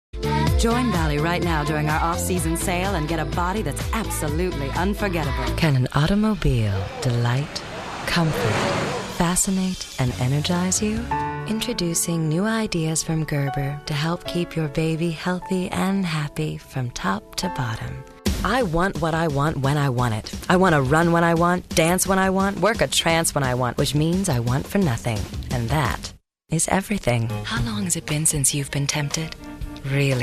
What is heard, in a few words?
Commercial, Female Voices